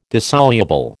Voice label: "dissoluble", American

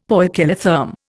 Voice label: "poikilotherm", Indian; Dictionary

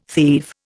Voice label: "thieve", Indian

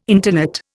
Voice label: "intonate", Indian

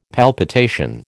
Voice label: "palpitation", American

